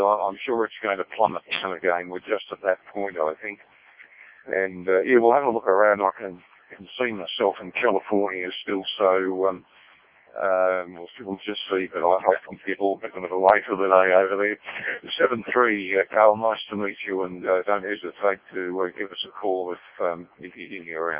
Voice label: decoded speech, parrot